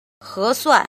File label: "jin", Chinese